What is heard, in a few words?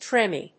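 "tremie", English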